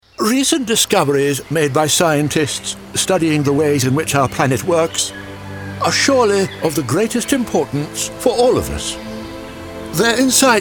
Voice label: David Attenborough voice matched